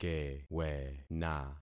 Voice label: "kewena", concat syllables; Affixing experiment